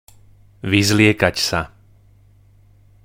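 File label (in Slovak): "vyzliekať sa", Slovak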